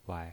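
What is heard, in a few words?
"wai", pinyin voice, neutral tone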